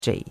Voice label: "zhei3", chinois; Cours-de-Chinois